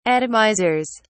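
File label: "atomizers", English, uk British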